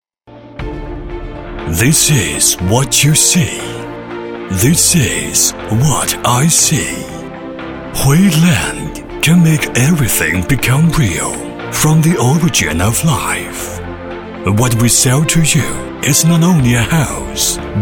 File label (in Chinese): 男英 宣传片 地产 地产宣传诱惑 大气; 外语; 英语